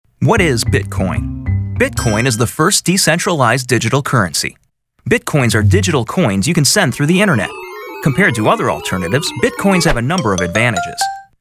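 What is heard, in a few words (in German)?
Erklarvideo Jugendfrei, English, male; NATIVE PROFISPRECHER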